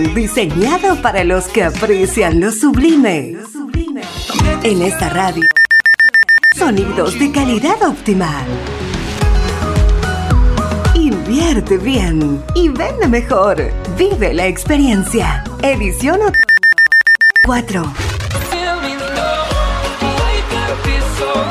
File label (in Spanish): Arte Ambient FEM Otono